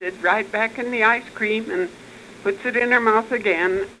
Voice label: Oral history with 77 year old female Grand Junction Colorado; american english dialect